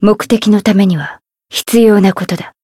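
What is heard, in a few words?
Voice Japanese; hiina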